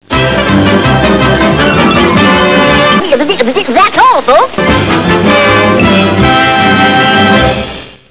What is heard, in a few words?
ein de tune tweety cartoon thats all folks; tweety & sylvester